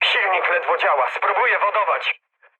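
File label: damaged engine, mood med, Polish speech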